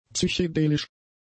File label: "psychedelisch", German; adjectives